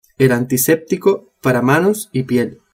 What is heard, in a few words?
"antiseptico", spanish